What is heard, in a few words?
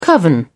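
"coven", British English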